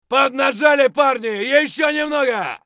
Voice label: bear battlecry; eft